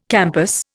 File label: "campus", Indian